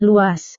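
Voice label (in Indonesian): "luas", Indonesian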